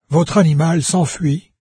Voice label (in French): pet flee, VOICES fr NARRATOR; Fate